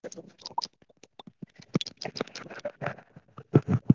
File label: voice message